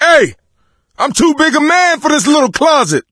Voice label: call for rescue, voice; Left 4 Dead 2; coach